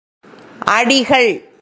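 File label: "அடிகள்", Tamil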